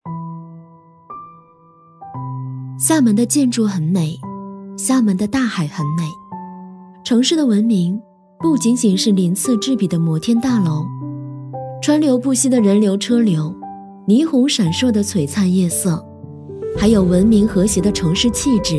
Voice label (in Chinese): 女, 地方宣传, 厦门, 温婉文艺; 甜美亲切; 女B15-甜美亲和